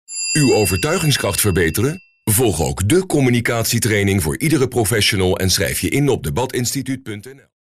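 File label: Dutch, male, narration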